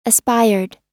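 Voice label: "aspired" US English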